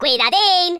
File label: "stupid", Spanish; speech